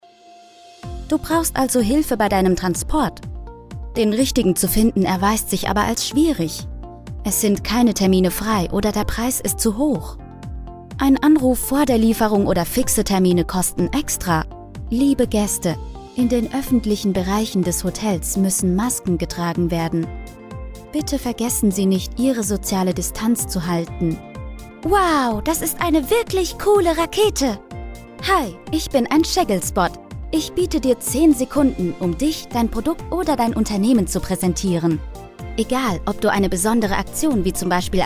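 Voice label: German, female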